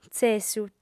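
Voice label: "tzessu", lingua sarda, female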